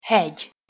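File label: "h2eg", Proto-Indo-European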